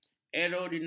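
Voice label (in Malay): "aerodinamik", Malay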